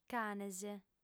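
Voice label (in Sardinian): "canes", female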